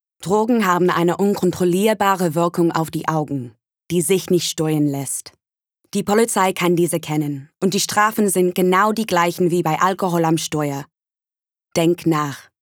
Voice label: Commercial, Confident, Strong, Serious, RP ('Received Pronunciation'); Drink Driving